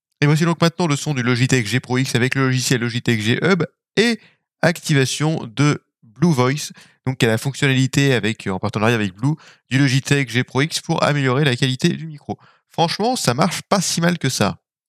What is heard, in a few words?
logitech g pro x micro blue voice